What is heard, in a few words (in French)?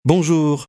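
"bonjour", French; MOBICLIC 65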